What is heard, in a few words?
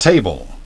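"table", English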